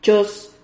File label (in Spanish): "choz", Silabas